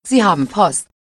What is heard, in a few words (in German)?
sie haben post klingelton; aol